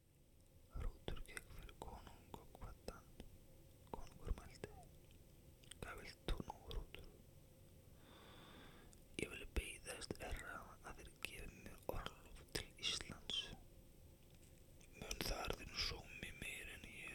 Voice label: ASMR 5.1; Njals Saga